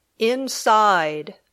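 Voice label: "inside", English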